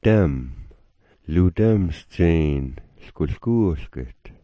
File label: "dam", smalgyax